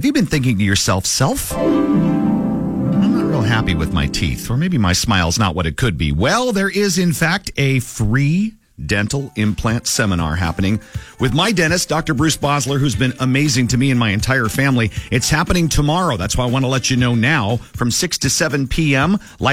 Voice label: commercial Radio Recording